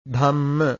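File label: "dhamma", Pali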